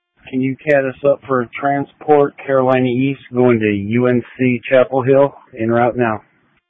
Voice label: EMS Dispatch